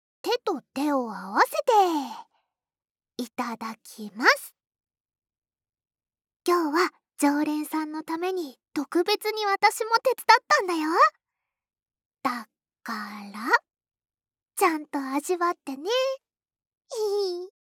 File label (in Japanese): シチュエーションボイス; 10日間限定特典 和み処 一番星～距離感の近い甘えん坊な仲居『月さん』が一生懸命ご奉仕する癒しの 一日～